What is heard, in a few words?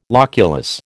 "loculus", American